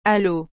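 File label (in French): "Allô", French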